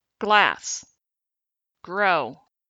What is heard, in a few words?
g initial clusters